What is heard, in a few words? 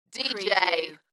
UK female "Dj" Dalekness; beanstalk audio